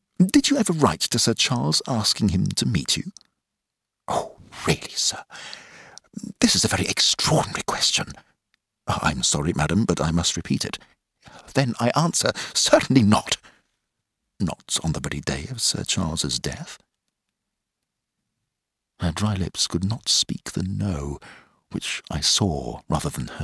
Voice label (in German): hoerbuch engl; hound baskervilles; litraton